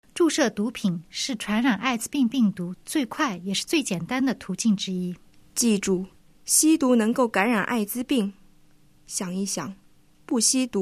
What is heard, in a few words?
spot chinese